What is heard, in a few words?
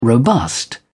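"robust", British English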